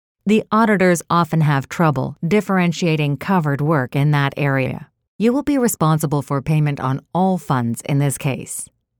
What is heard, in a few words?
Matter of fact corporate elearning